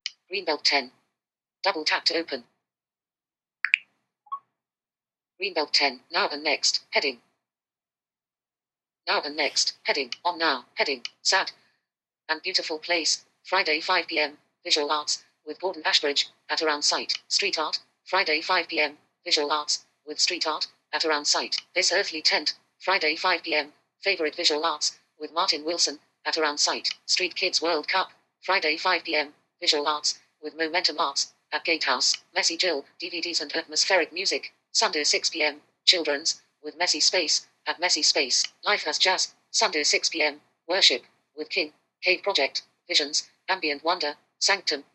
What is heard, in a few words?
voiceover; greenbelt